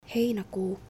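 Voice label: Finnish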